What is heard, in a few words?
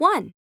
English Number